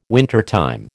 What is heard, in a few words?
"wintertime", American